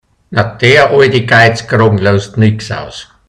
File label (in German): "sie loußt nix aus", hianzisch Mundart Burgenland; sie gibt nichts preis; sie schweigt; ausloussn